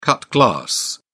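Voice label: "cut glass", British English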